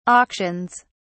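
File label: "auctions", British English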